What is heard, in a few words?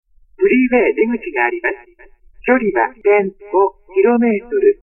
female